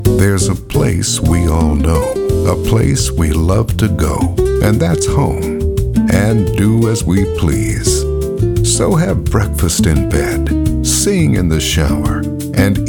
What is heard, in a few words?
english american automotive